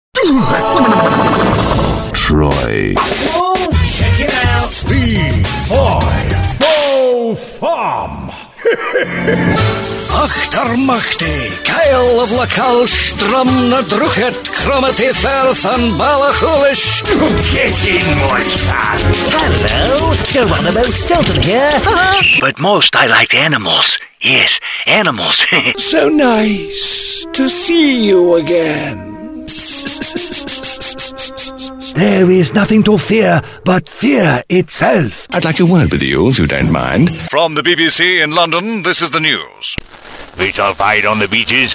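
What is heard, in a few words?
animation, voice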